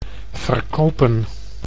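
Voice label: "verkopen", Dutch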